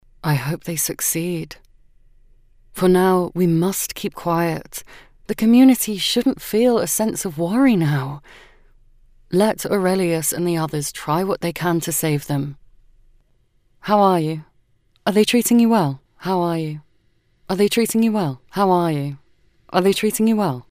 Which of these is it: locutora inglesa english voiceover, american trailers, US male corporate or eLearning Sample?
locutora inglesa english voiceover